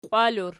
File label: "qiwalur", Archi